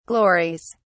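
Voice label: "glories", English, British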